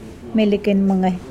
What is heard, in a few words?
"dairy milk", Mokilese